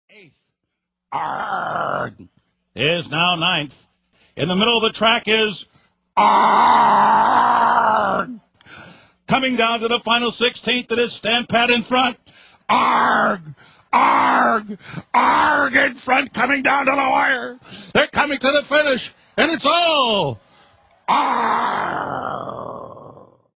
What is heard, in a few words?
crazy sports commentators